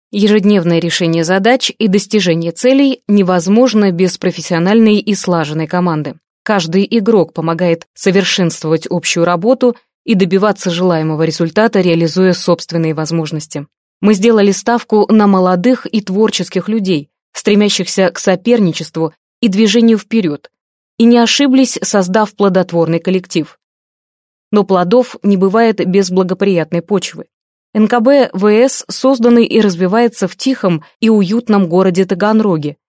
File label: russian female